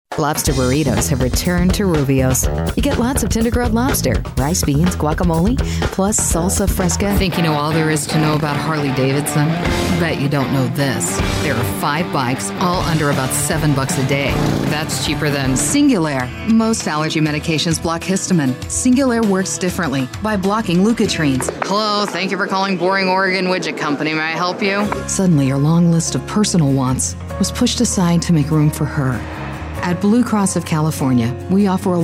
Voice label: Commercial; female voice over